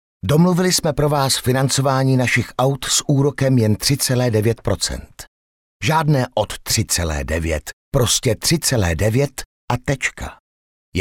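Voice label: Male Czech commercial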